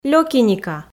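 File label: "лёкиника", Komi, female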